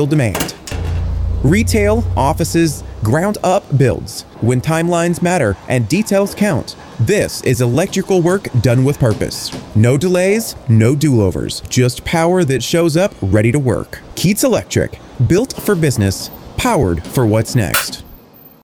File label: Commercial; Keatts Electric